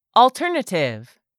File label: "alternative", English; 3000 words